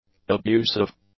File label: "abusive", english; adjectives